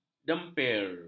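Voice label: "dempir", Malay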